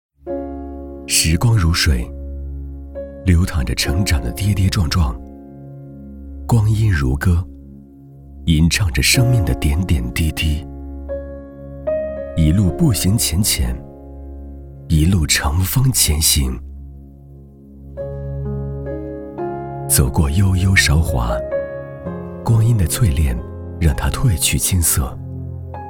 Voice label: 男 短视频 正能量 磁性浑厚旁白 文艺 文章朗读 电台片头

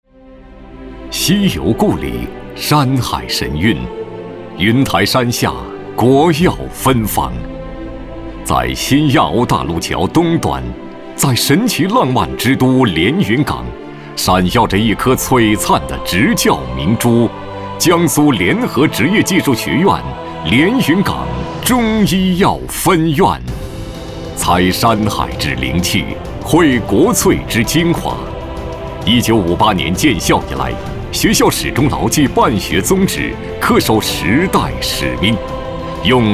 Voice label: 男 宣传片 学校 浑厚大气

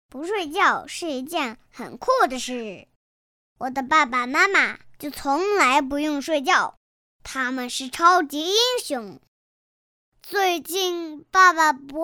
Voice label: 女 真童 6岁; 真童-抗疫

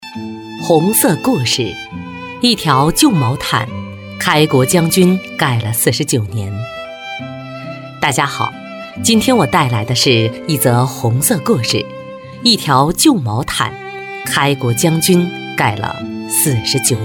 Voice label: 女 博物馆解说 庄重大气 红色故事

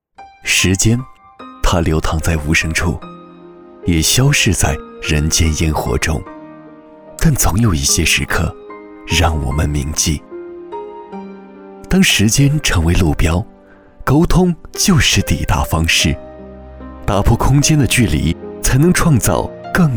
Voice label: 男 旁白

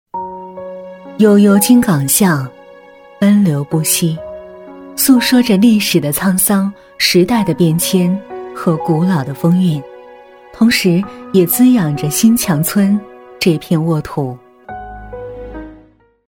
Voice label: 女 专题; 文润新强

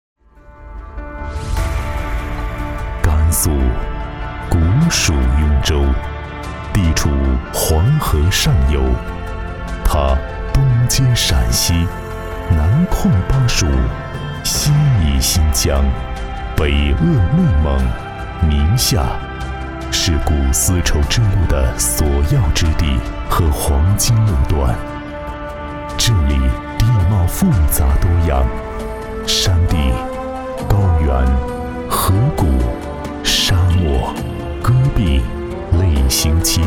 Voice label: 男 专题 甘肃省形象宣传片 大气 韵味 年轻

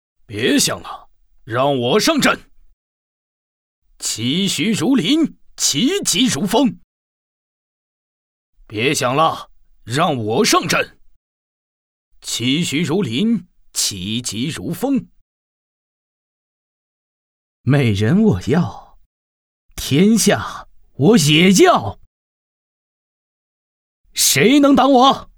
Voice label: 男 角色; 三国游戏十一个角色

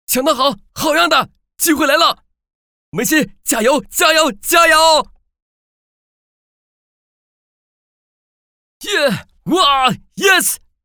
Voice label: 男 角色 广告 看球 男; 抢得好

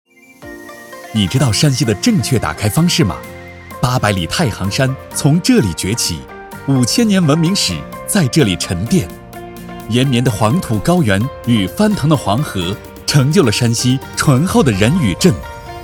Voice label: 男, 旅游宣传, 欢快, 愉悦

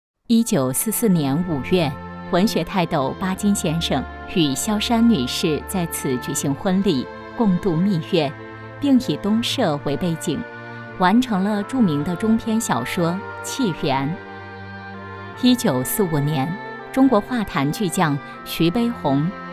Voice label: 女 讲述; 文学泰斗巴金先生

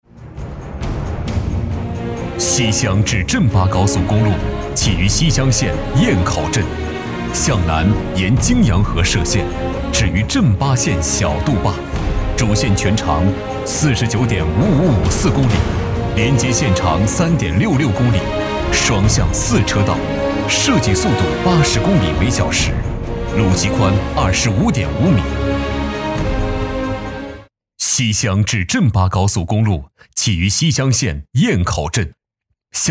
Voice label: 男 工程汇报

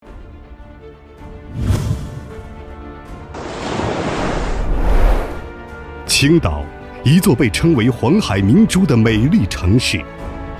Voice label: 男 党政宣传; 青岛

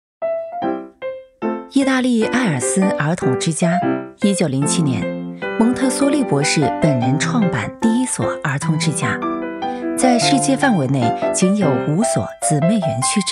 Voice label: 女 MG风 轻松欢快; 艾尔思儿童之家

